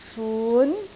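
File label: "fun2", Cantonese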